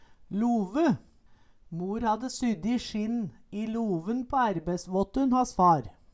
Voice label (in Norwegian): love, Numedalsmål